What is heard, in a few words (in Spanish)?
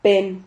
"pen", Silabas